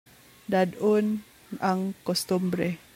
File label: Cebuano